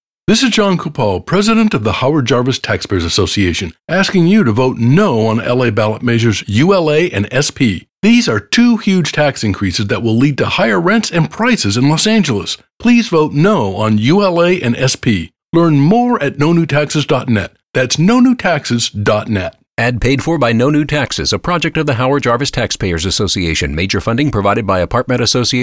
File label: No on ULA and SP Radio English; HJTA